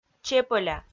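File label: "чепӧля", Komi, female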